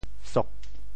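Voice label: "sok4", Teochew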